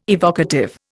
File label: "evocative", Indian